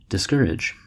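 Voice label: "discourage", US English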